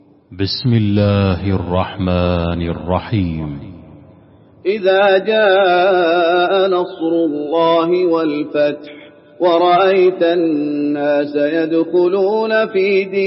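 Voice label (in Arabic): النصر, تلاوة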